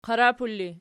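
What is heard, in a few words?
"qarapul" ergative, Archi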